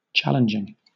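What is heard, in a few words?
"challenging", British English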